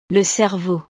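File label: "le cerveau", French; partes del cuerpo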